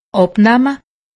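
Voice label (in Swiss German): "abnamme", Alsatian; medecine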